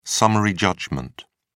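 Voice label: "summary judgment", British English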